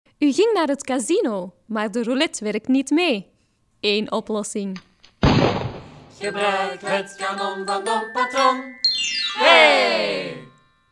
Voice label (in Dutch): Reklamespotje